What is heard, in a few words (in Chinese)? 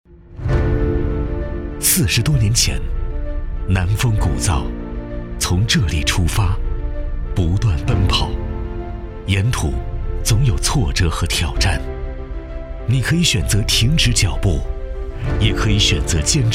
男 大音; 东鹏 永不止步